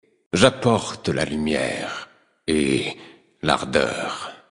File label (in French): Male Flirt; Draenei Sancteforge; Ardeur